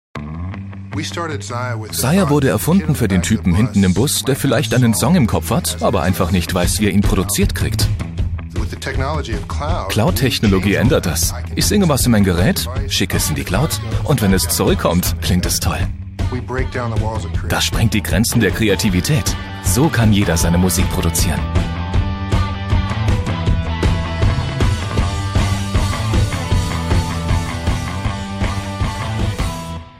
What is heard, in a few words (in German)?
Kommentar Lebendig